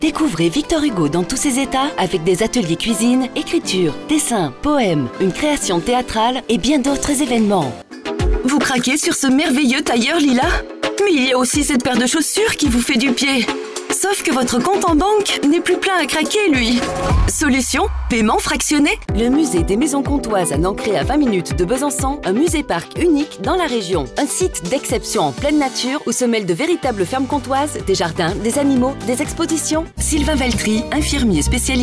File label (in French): Promo Radio Eté